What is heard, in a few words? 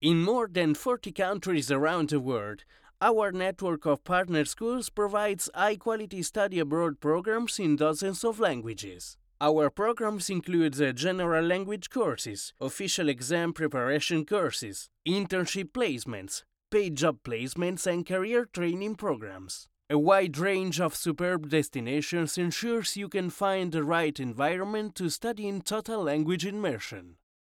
english italian accent ad